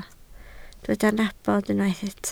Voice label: "nabod", Welsh